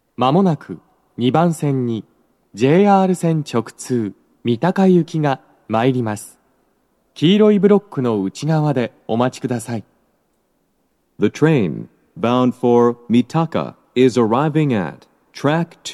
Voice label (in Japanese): 接近放送, 放送は「三鷹行き」です。BOSE天井型での収録です。